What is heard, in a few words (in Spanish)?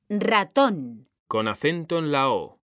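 "RATÓN" Spanish, female; mekanta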